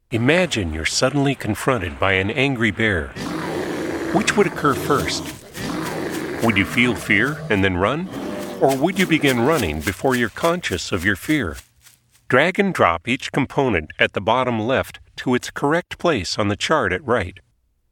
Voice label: eLearning bear